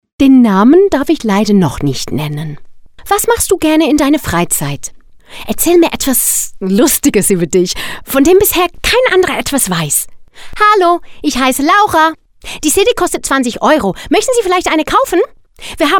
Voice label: VOICE ARTIST German only